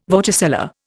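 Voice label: "vorticella", Indian English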